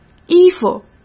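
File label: "衣服", Mandarin Chinese; chinese flashcards; hsk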